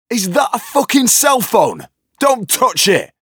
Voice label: 'Cellphone' (text message tone); Payday The Heist; Hoxton